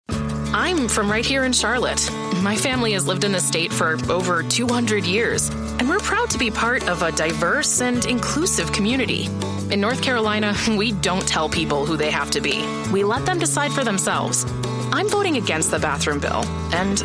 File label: english american political ads